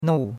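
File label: "nou4" Mandarin Chinese syllable, fourth tone; Cours de Chinois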